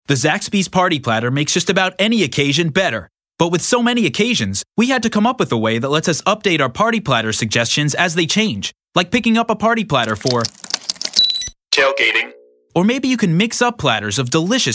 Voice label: Commercial